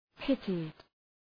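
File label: "pitted", English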